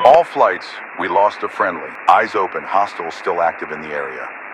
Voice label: Radio command Friendly Down

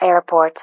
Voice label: Airport, ATIS

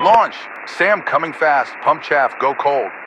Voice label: Radio pilot Warning SAM Launch

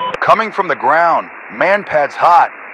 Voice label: Radio pilot Warning MANPADS

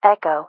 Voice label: "Echo", NATO Alphabet

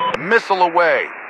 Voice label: Radio pilot Launch Missile

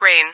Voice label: Rain, ATIS

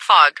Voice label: Fog, ATIS

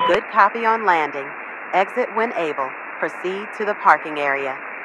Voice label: Radio atc Safe Landing Player

